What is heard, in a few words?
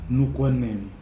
"nukwanɨm", Nafe (Kwamera); your hair